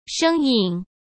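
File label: "生硬", Mandarin Chinese; chinese flashcards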